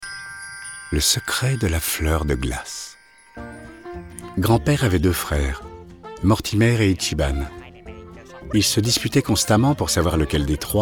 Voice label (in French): french m narration; voice demos